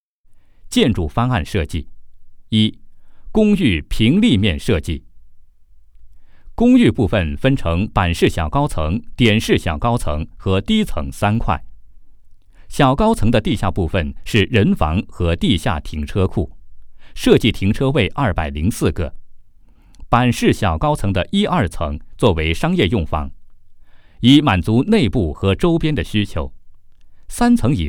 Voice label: 男 解说, 国语男声配音; 公寓多媒体

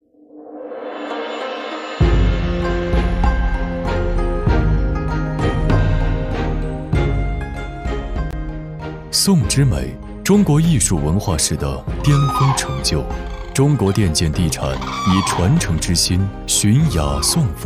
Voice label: 男 宣传片 古典韵味; 电建地产; 匠艺篇

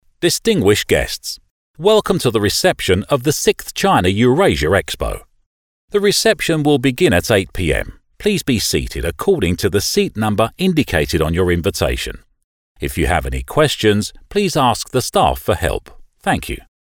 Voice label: 外籍英男 干音 会议宣讲

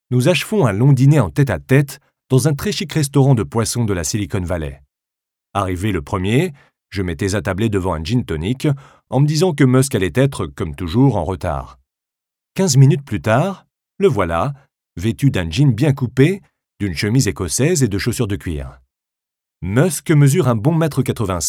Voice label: 外籍法语男 音频书 audiobook

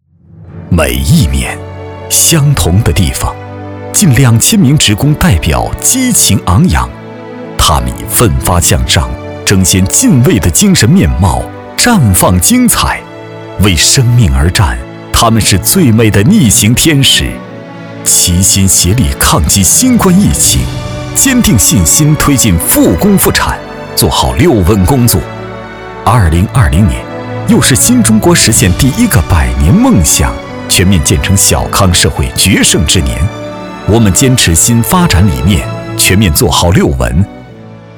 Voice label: 男,专题 大气震撼

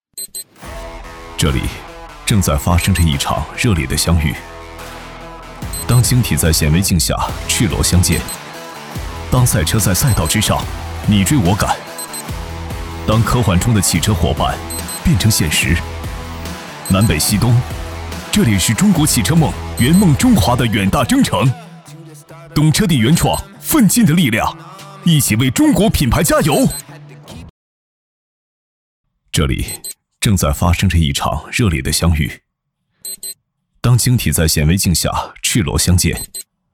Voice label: 男 走心; 懂车帝

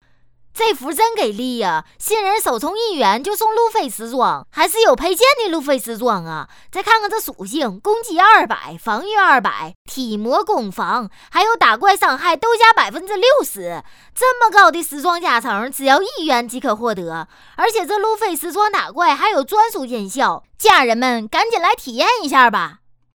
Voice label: 女 信息流 游戏解说 东北话解说

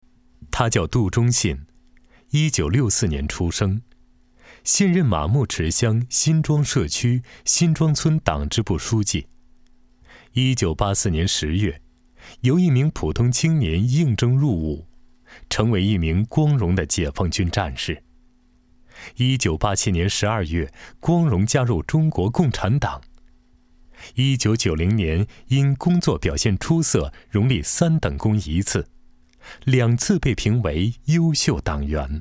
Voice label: 男 人物专题

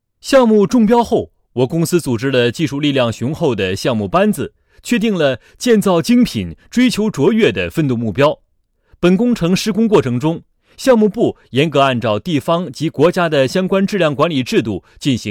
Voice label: 专题 工程解说, 国语男声配音; 北部湾大道

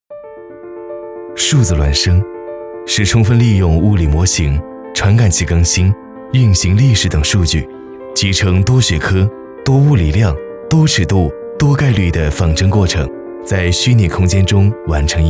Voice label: 男 科技宣传 建设

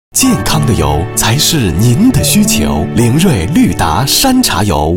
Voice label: 男 广告 清新温暖; 绿达

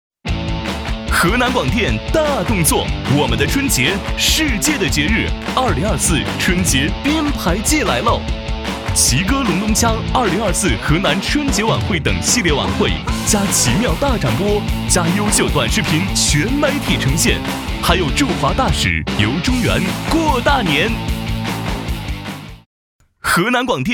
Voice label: 男 激情愉悦年轻广告; 河南广电

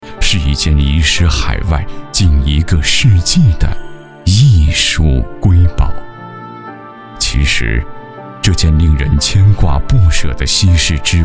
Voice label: 男 专题 大气纪录片

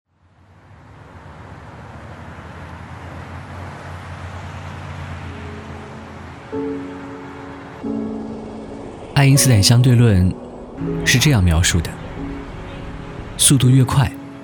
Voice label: 男 旁白独白 直指人心 欲望

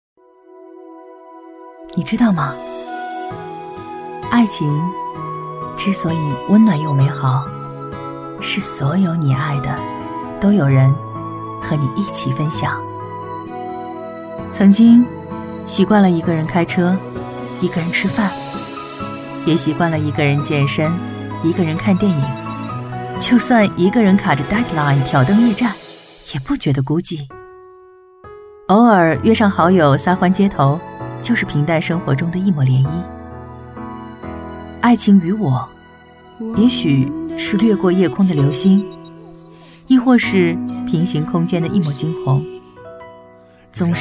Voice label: 女 旁白; 爱情

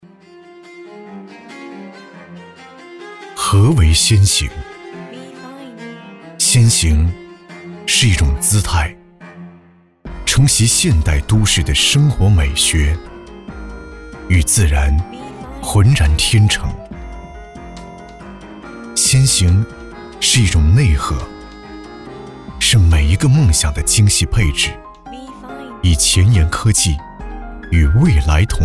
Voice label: 男 温暖自然; ENC

